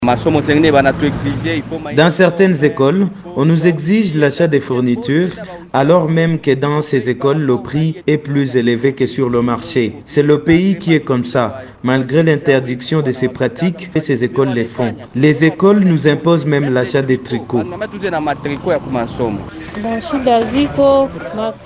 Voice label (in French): FR VOX POP; SUR LA RENTREE SCOLAIRE